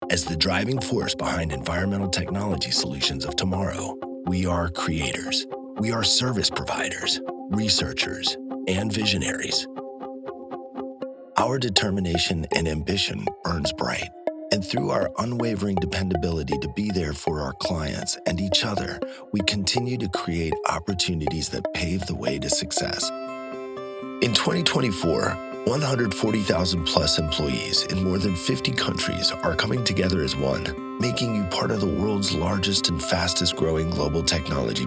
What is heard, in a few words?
Corporate Reel